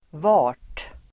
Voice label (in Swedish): "vart", Swedish